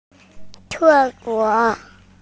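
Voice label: "toilet", Mandarin Chinese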